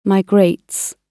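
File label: "migrates", British English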